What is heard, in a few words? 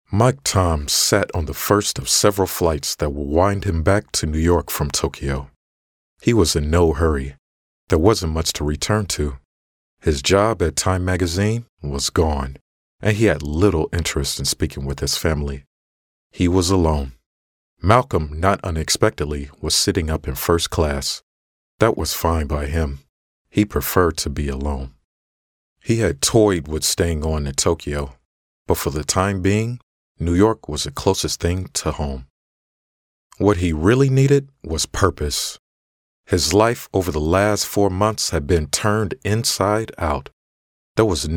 Audiobook